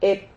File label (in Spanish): "hep", Silabas